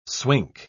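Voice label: "swink", English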